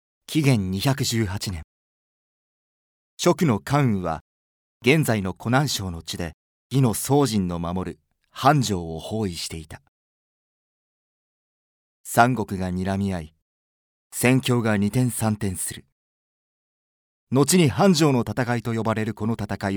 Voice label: narration program